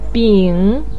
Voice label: "bing3", Chinese pinyin voice

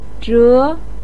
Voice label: "zhé", voice pinyin

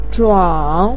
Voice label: "zhuǎng", voice pinyin

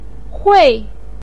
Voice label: "hui4", Chinese pinyin voice; 会水

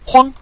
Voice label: "huang", Mandarin; 晃晃悠悠; huàng huang yōu yōu; swaying; wobbling

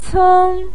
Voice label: "ceng1", Chinese pinyin voice

